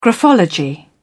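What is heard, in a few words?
"graphology", British English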